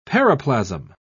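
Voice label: "paraplasm", British English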